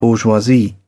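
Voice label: "bourgeoisie", British English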